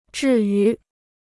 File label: "至于", Chinese